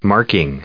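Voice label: "marking" English, American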